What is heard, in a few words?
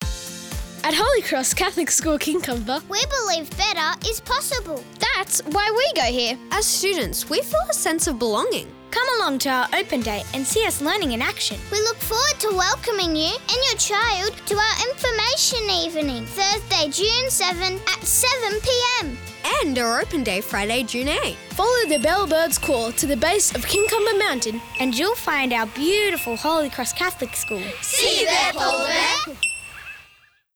Radio Ad, KIDS; HOLY CROSS KINCUMBER; KIDS FROM HOLY CROSS